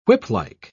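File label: "whiplike", British English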